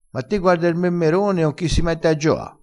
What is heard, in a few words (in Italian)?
"memmerone", crespinese